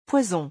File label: "poison", French